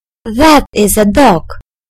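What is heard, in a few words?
"that is a dog", British English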